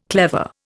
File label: "clever", Indian